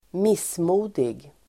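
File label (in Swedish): "missmodig", Swedish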